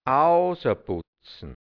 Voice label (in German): "ausaputzn", hianzisch Mundart, Burgenland; schön machen; sich herausreden